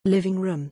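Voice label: "living room", English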